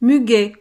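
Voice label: "muguet", French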